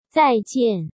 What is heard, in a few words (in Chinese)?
"再見", 中国語; taiwandetukauchinese